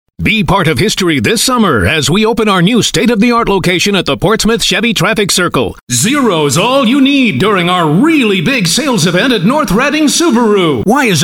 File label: english american